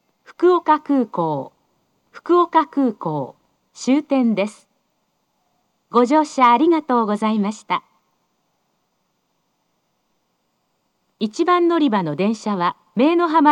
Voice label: 到着-姪浜行（約4分停車）, 駅放送; 福岡市地下鉄 空港線 福岡空港駅

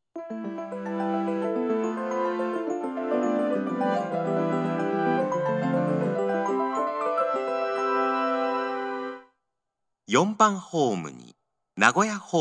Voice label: 接近-太閤通行き, 名古屋市営地下鉄 桜通線 丸の内駅 駅放送

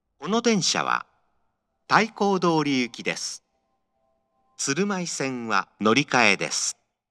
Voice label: 到着-太閤通行き, 名古屋市営地下鉄 桜通線 丸の内駅 駅放送

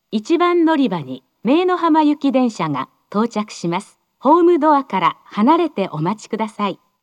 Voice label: 接近-姪浜行, 駅放送; 1番のりば放送; 福岡市地下鉄 空港線 福岡空港駅

